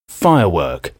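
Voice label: "firework", English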